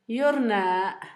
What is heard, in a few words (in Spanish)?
"ahora", Zapoteco Asunción Ocotlan; Adverbios; INALI; Haspelmath